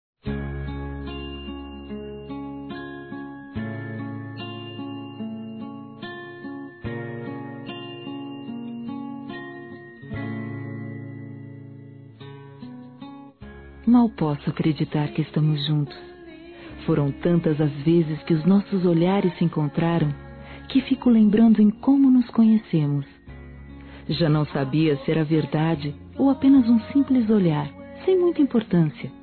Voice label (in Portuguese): NAMORO RECENTE F; Aniversários; ANIVERSARIO romantico; fem